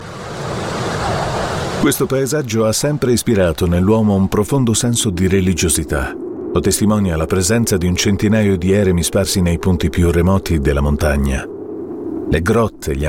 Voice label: Italian Documentari